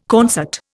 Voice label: "concert", Indian